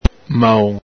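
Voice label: "maon", Venetian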